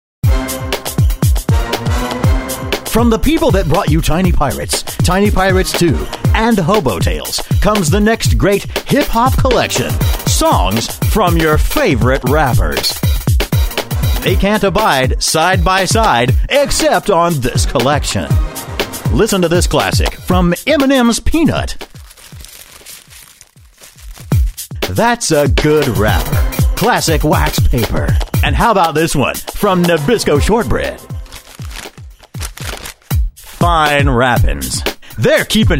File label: HipHop Album Ad